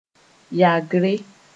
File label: "yagre", Moore